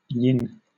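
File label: "yin", British English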